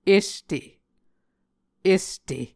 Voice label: "este", Muscogee